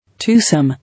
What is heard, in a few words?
"Twosome", English